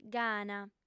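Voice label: "gana", Sardinian, female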